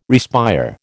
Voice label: "respire", American

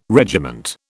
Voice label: "regiment", British

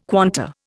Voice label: "quanta", Indian

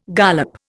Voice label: "gollop", Indian

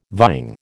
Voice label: "vying", American

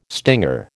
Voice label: "stinger", American

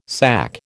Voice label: "SAC", American

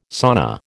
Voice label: "sauna", American

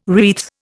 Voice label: "wreath", Indian

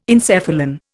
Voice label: "encephalon", Indian

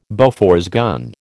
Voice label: "Bofors gun", American

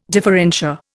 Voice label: "differentia", Indian

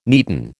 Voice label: "neaten", American

